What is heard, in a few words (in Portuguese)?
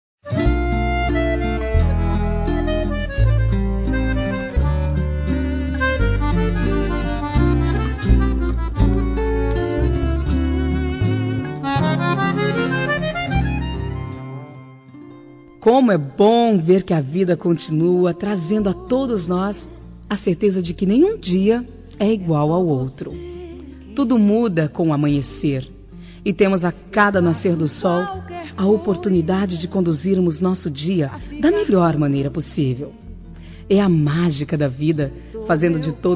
Amizade Feminino